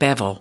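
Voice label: "bevel", US English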